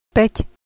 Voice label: "5", Slovak

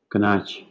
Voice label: "kenaq" Albanian

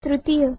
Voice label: "trtiya", Sanskrit

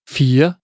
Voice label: "4", Danish

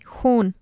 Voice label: "xun" blood, Urdu, F

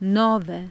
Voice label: "nove", Italian

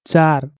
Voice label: "char", Nepali

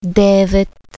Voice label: "devet", Bulgarian

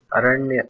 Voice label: "aranya", Sanskrit

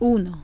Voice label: "uno", Italian

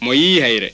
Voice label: Fula

